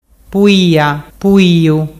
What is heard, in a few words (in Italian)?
puiapuiu, dialettu